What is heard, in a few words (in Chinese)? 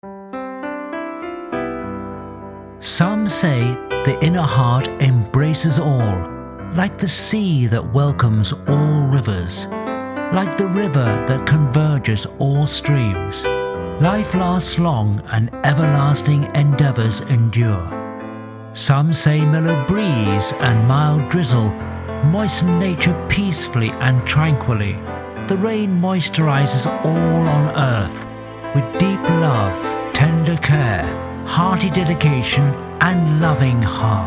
外籍男英 外语 英式英语 温柔; Chuanrun